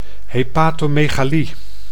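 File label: "hepatomegalie", Dutch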